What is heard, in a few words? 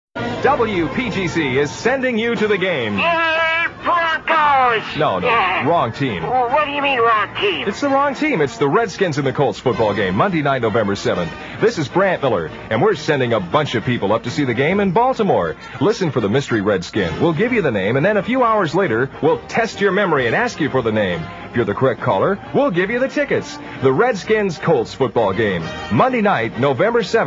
promo; mystery redskin